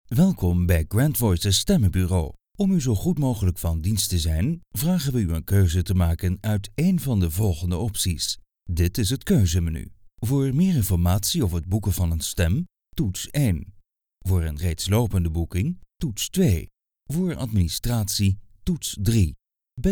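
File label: DUT M, Dutch male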